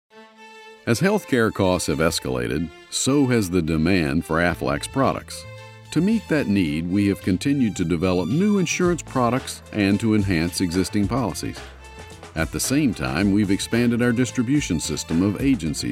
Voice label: Narration